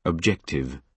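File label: "objective", British English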